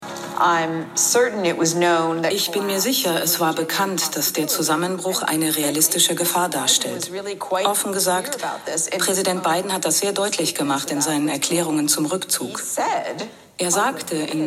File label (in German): Afghanistan Doku